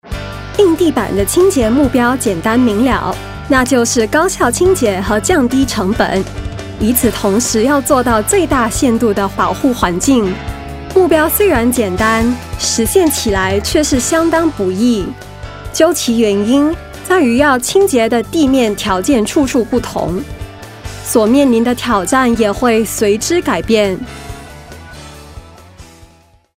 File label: Mandarin Corporate Full Mix, Mandarin China Female